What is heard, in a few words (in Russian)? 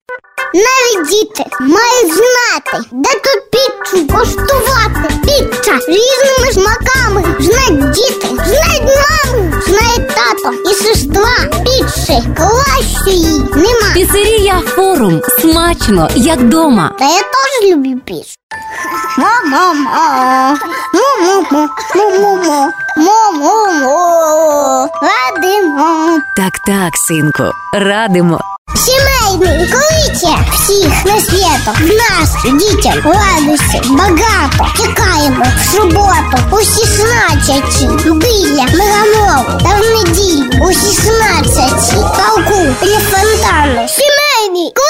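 мальчик 4 года, Ukrainian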